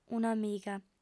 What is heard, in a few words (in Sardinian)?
"un'amiga", lingua sarda